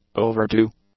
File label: "overdue", English; adjectives